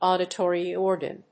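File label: "auditory organ", English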